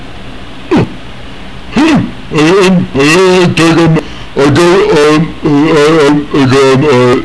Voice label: caveman